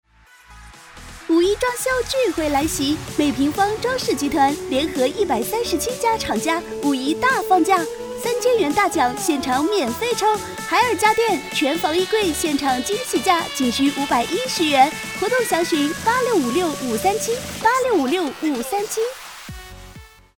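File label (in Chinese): 五一家装活动广告, 女配音 青年（18-35） 普通话 女性知性 深沉内敛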